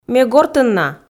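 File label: Komi sentences, female; ме гортын на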